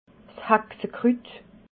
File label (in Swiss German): "haxakrut", alsace